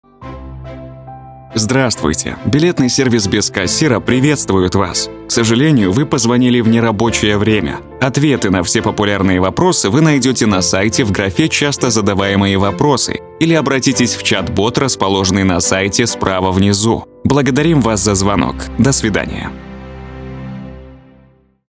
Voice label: RUS